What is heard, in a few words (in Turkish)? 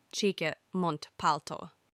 "Ceket", Turkish